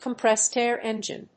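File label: "compressed-air engine", English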